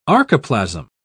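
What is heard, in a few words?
"archoplasm", English, British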